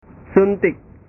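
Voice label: "suntik", Indonesian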